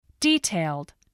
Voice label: "detailed", english spelling

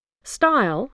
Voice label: "style", spelling

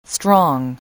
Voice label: "strong", spelling

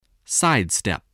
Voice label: "sidestep", spelling

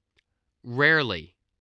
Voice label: "rarely", english

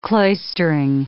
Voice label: "cloistering", English

